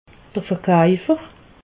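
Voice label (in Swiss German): "verkaufer", Alsatian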